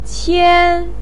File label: "qian1", Chinese pinyin; 锡; xī qiān